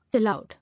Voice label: "Aloud", English